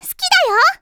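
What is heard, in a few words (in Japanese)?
"すきだよお", Japanese; Voice&Breath; Vocaloid 6.5.1; Otomachi; VOCALOID6 AI OtomachiUna Spicy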